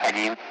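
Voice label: Speech Russian; Allied Flight; Digits; DCS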